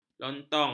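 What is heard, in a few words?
"lontong", Malay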